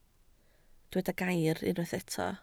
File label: "gair", Welsh